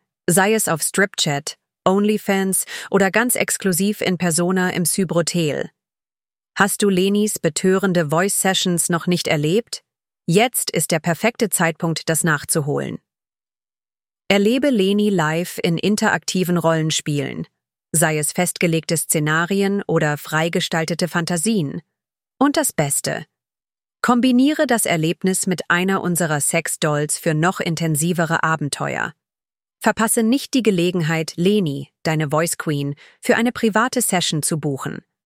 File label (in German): Die verführerische Stimme